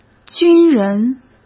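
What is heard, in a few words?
"军人", Mandarin Chinese; chinese-flashcards; hsk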